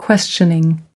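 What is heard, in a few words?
"questioning", US English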